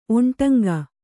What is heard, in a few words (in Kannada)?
"ಒಂಟಂಗ", Kannada